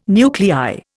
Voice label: "nuclei", Indian

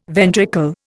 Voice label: "ventricle", Indian

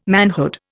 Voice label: "manhood", Indian

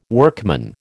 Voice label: "workman", American

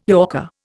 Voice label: "yorker", Indian

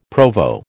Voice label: "Provo", American

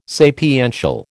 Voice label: "sapiential", American

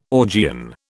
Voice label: "Augean", British

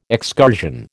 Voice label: "excursion", American

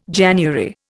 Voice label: "January", Indian

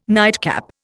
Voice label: "nightcap", Indian

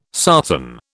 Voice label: "sarsen", British

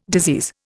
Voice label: "disease", Indian

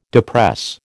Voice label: "depress", American

